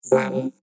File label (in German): zahlen englisch vocoder 2